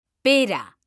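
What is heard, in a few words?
"pera", Spanish, female; mekanta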